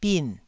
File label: "bin4", taiwanese